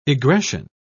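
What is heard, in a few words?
"egression", British English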